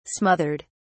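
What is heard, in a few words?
"smothered", British English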